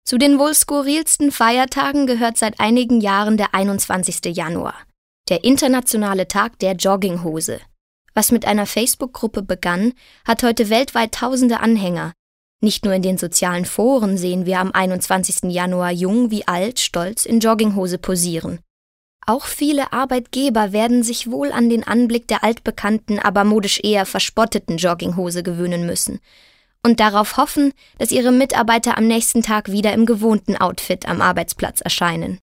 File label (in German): Neutral